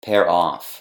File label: "pair off", English